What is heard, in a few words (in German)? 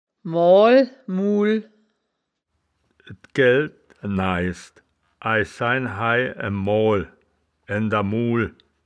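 "moal muhl", Wittlicher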